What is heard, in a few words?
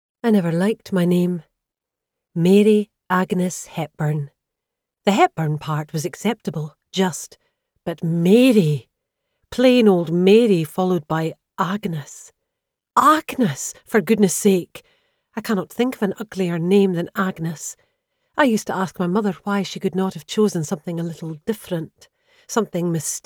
Scottish narration and characters